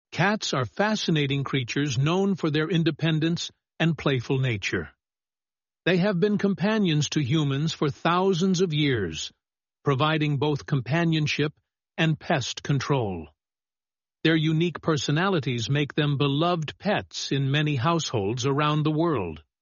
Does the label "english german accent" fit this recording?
No